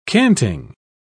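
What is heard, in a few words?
"canting", British English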